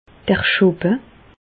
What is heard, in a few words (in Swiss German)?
"schoppe", Alsace, Bas Rhin; medecine; biberon